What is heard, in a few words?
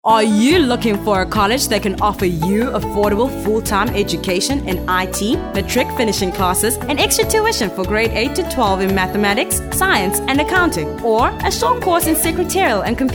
Radio AD; Amitek